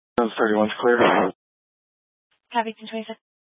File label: Fire Department Dispatch; Grand Junction